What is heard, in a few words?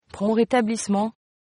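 get well soon French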